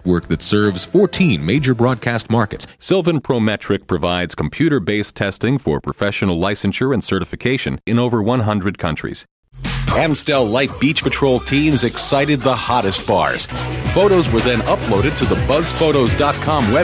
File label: American Male